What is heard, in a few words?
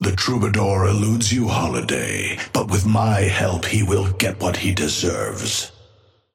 Patron male ally start; astro